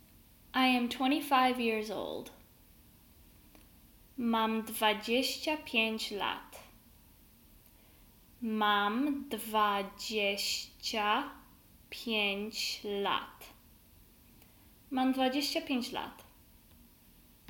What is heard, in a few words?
I am 25 years old, Polish; the talking polish phrasebook